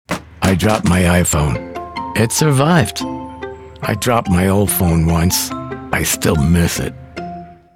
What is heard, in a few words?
Deadpan; IPhone